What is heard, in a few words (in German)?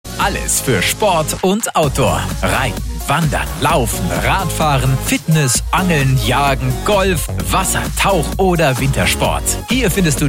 WerbeBlock; audimark; Audials